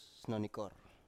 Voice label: "snonikor", Biak

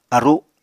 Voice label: "aru", Apatani

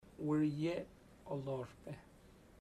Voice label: they masc dont say a, chamacoco, Bahia Negra

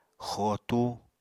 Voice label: "xootu", Apatani

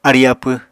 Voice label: "arí-apw", Apatani

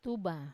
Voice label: "tuba", treetop, Wamesa

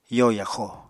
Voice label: "yo yaxo", Apatani

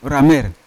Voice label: "ramer" shoot, Yawa

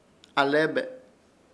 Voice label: "ALEBE", Ishir Chamacoco; adelante

